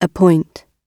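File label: "appoint" British English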